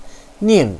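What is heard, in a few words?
"ning", Mandarin Chinese, fourth tone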